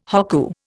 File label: "hokku", Indian